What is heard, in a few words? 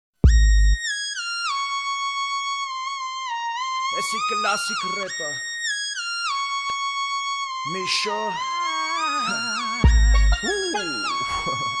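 armenian rap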